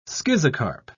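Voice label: "schizocarp", British English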